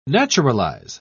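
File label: "naturalise", British English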